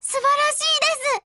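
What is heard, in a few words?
Japanese; RPK-203; appreciate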